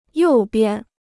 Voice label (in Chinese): "右边", Chinese